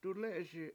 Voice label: put hand out, Washo